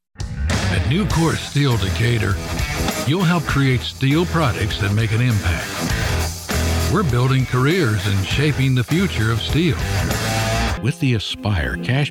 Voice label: Commercial National